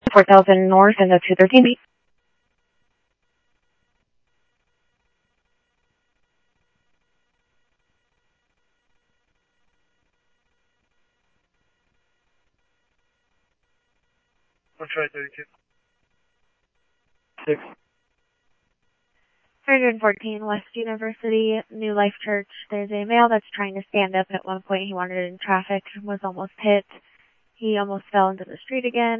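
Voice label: police department, scanner audio; PHOENIX PD-200 A Phoenix PD; ThompsonPeakHigh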